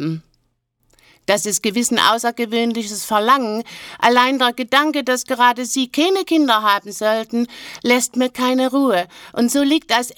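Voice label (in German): adolf sächsisch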